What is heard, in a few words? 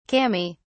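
"kami", British English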